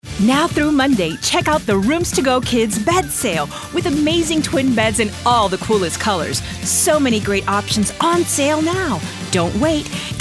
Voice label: Commercial, Eng-Spa